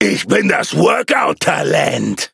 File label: victory, de; cookie run